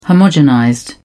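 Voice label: "homogenized", British English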